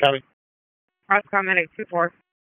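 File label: EMS Dispatch, p25; wake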